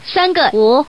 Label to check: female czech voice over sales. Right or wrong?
Wrong